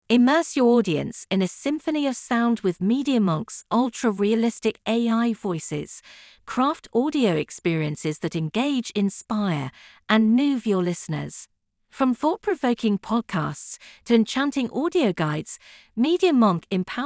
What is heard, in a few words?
narration